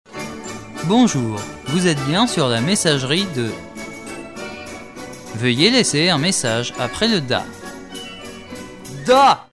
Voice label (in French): Répondeur Messagerie